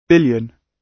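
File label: "billion", British English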